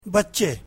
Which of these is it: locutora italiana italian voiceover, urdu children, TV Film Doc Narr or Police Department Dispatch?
urdu children